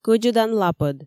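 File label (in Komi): "кӧдзӧдан лапӧд", Komi, female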